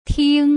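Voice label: "ting", Putonghua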